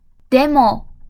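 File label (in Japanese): "でも", Japanese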